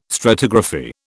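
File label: "stratigraphy", British English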